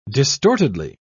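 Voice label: "distortedly", British English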